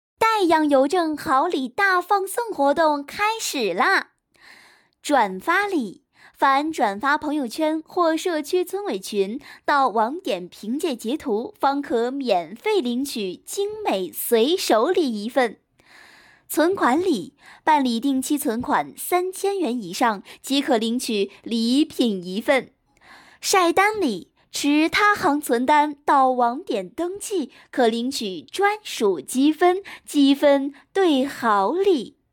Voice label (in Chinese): 邮政广告